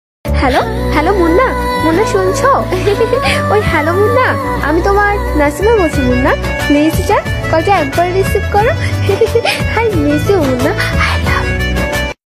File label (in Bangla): name ringtone bangla ringtone cute girl voice